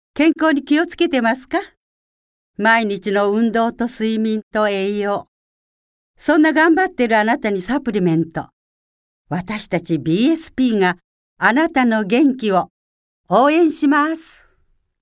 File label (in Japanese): サプリCM